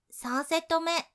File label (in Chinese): 第3组, 女生为你进行健身计数的语音 少女